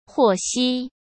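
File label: "获悉", Mandarin Chinese; hsk; chinese-flashcards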